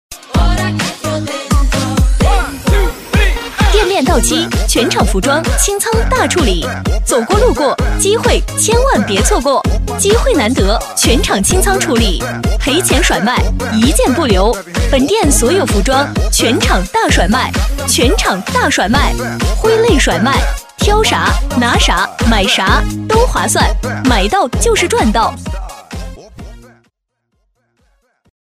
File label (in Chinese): 活力激情女 服装清仓大甩卖; 激情力度